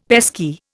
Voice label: "pesky", Indian; Dictionary